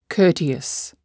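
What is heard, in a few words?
"courteous", English word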